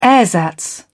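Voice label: "ersatz", British English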